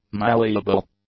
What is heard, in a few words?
"malleable", English; adjectives